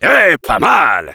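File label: Heavy nice shot, French; "Hé, pas mal."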